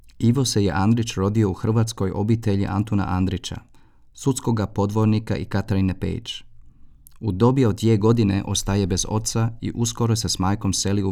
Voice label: Doku Kroatisch; Ivo Andric

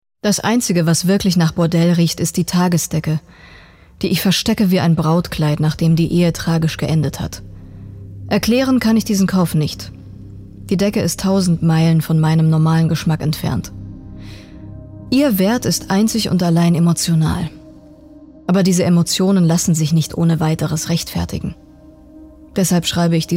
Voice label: Hoerspiel

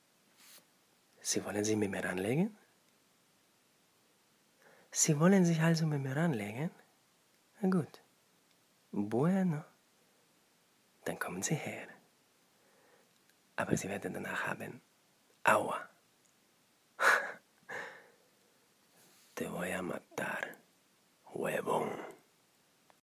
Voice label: Spanischer Akzent

